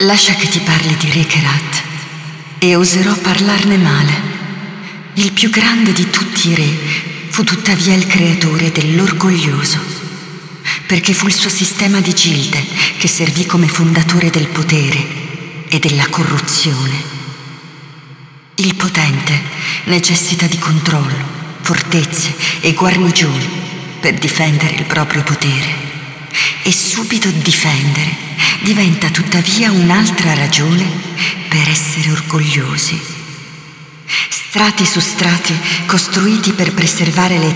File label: Speech, ita; Yeesha